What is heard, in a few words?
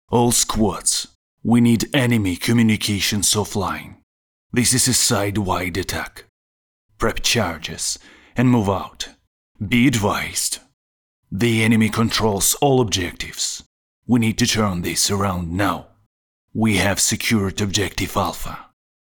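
Ukrainian Russian, male, English, accented read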